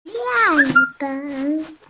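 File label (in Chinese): "mian4fen3", Mandarin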